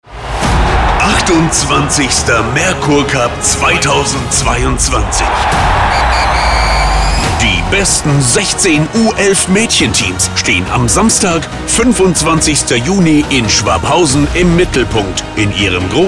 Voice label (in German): Spot; Merkur CUP; Bezirksfinale Maedchen